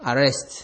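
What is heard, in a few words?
"arrest", Nigerian Pidgin, male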